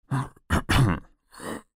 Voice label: old man; Fruity Burst 2